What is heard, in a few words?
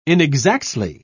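"inexactly", British English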